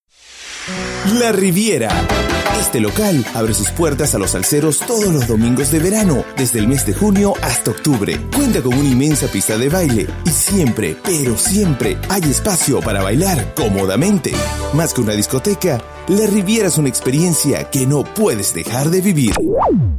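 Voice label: Spanish Latin American male Corporate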